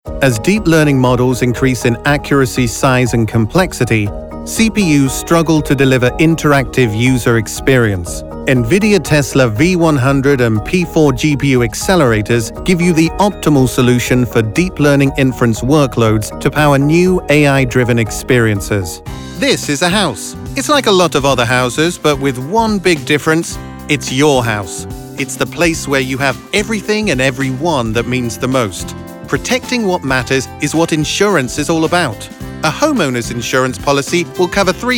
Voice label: Corporate eLearning, british elearning voiceover